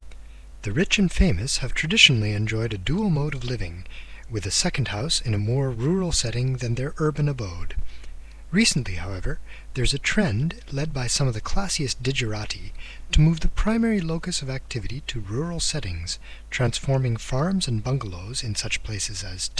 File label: Male Speech